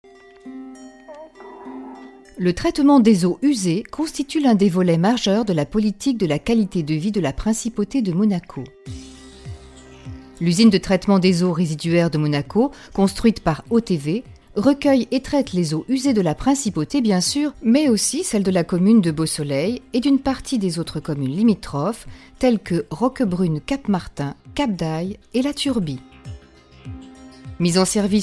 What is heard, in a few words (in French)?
FR F INSTIT; Français